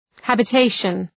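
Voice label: "habitation", English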